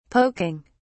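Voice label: "poking", British English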